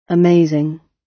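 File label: "amazing", British English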